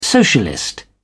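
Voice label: "socialist", British English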